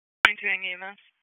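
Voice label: Police District Dispatch; wake; p25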